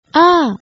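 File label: "aa", Japanese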